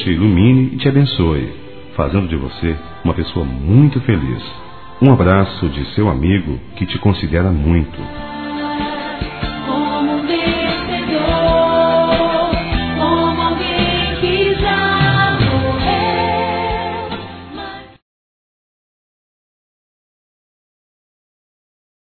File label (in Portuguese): Evangélico Amizade Masculino, Telemensagens; ANIV. Evangelico